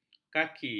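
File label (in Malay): "khaki", Malay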